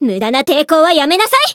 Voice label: voice jp; renri

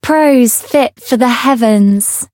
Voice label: variable chant

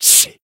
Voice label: damaged jp

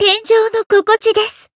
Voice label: Voice healed, jp; sincere

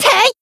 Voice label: Voice attack jp; kid

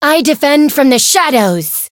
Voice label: chant

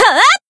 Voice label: attack, jp; sincere

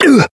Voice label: Voice damaged

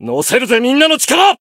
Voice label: Voice limitbreak jp

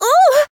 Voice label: Voice damaged

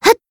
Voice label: attack, jp; maturika

